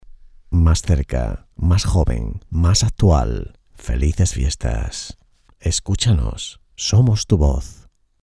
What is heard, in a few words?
jingle navidad spanish christmas voiceover